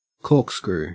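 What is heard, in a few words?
"corkscrew", Australian English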